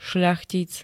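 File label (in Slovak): "šľachtic", Slovak; príslušník šľachty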